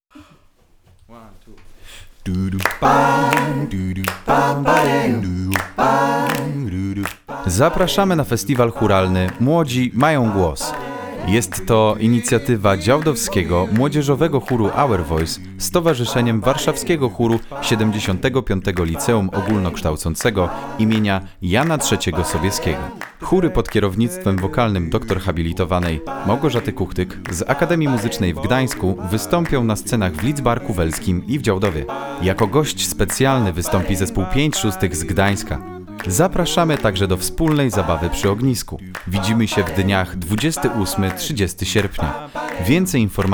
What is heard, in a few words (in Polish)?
reklama